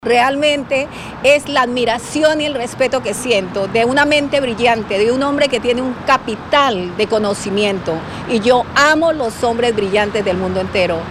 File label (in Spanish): Mujer Latina; Trump es mente brillante